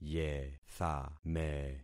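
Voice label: "yethame", concatenated syllables; Affixing experiment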